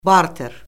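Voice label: "barter", Azerbaijani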